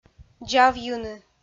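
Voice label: "дзавйыны", Komi, female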